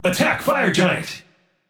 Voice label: vox attack; smite; ra; voicepack